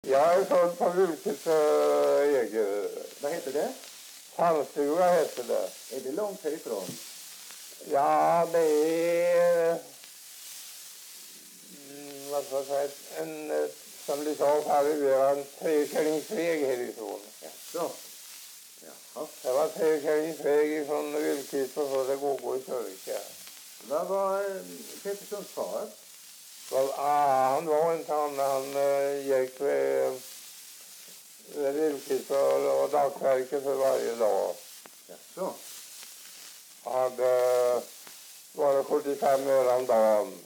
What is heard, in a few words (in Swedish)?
dialekter; Folkminnen; Svenska sagor